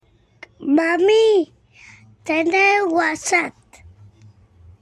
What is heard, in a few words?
baby voice whatsapp